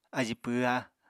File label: "ají pwhá", Apatani